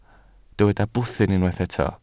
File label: "bwthyn", Welsh